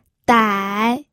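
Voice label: "dai", pinyin, third tone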